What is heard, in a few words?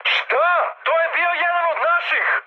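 Serbian player killed ally mood high, speech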